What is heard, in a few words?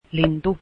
bird, Finnish